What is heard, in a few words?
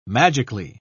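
"magically", British English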